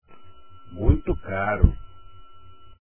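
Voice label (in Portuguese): "muito caro" Portuguese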